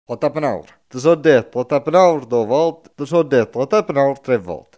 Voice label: talpa tapinara, coratino

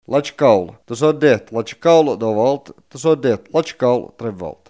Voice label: cicala, coratino

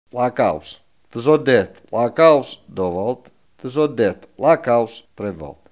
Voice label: "cosa", coratino; CAUSA; Archivio di parlato dialettale del progetto Galileo G14-126

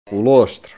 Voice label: "lustro", coratino; spilla

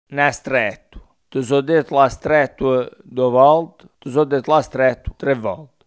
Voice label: strada stretta, coratino

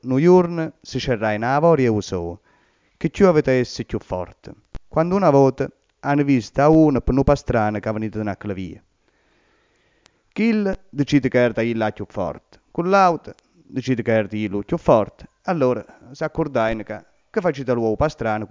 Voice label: m; aliano

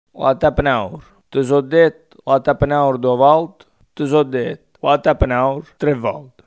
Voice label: talpa tapinara, coratino

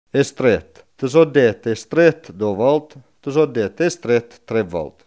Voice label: stretta, coratino

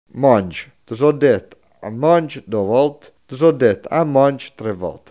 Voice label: mungere, coratino

